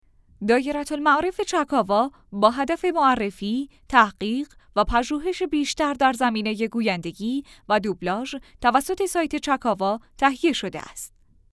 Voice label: news, male narrators; english